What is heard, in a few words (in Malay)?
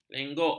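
"lenggok", Malay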